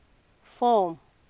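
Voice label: "form", Nigerian Pidgin, female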